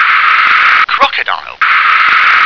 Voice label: "crocodile", English